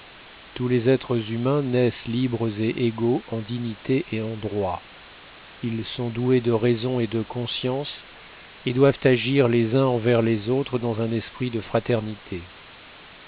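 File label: French narrowband, speech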